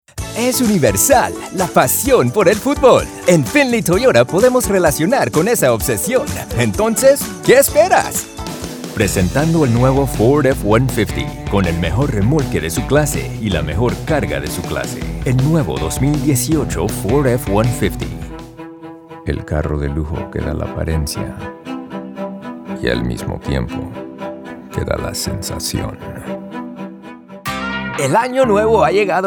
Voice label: spanish other automotive; Friendly, with a touch luxury.